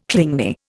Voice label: "clingy", Indian